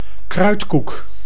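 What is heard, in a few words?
"kruidkoek", Dutch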